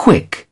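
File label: "quick", British English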